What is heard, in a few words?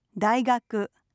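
"daigaku", Japanese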